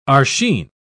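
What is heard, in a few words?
"archine", English, British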